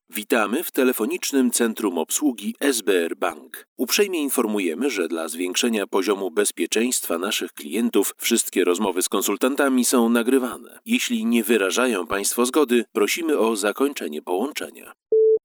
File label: bilingual IVR